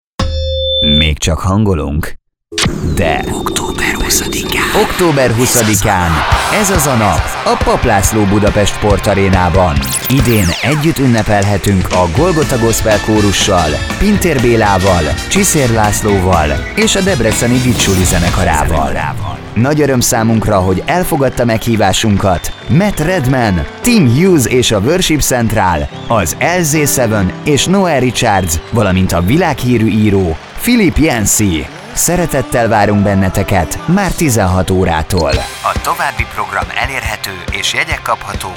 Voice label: radiospot; ezazanap